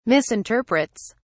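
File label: "misinterprets", British English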